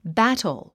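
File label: "battle", English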